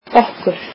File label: "us", Icelandic